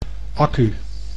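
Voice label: "accu", Dutch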